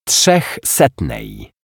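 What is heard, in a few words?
"trzechsetnej", Polish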